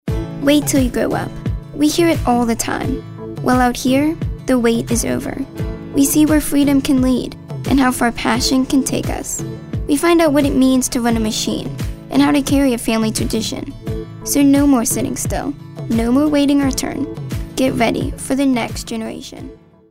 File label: kid next door